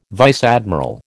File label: "vice admiral", American